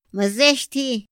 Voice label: "ma zësthi", Otomí; INPI; INALI